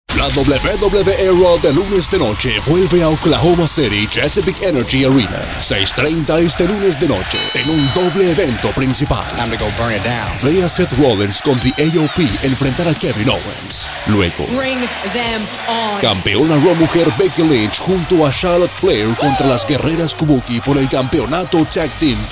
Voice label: Spanish Promo; WWE